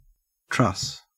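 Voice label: "truss", Australian English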